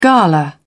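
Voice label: "gala", British English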